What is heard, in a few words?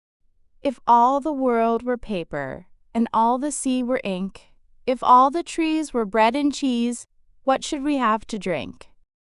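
female US, classic rhymes; If All the World Were Paper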